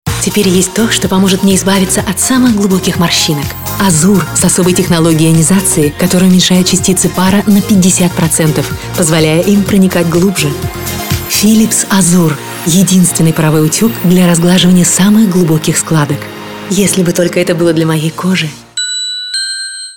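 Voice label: Russian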